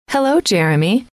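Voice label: "hello", female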